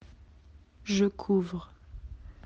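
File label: "couvrir", French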